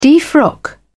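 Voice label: "defrock", British English, dictionary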